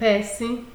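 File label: "person", Nigerian Pidgin, female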